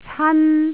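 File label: "can1", Cantonese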